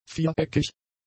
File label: "viereckig", German; adjectives